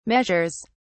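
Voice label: "measures", British English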